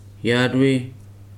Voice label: "know", Weri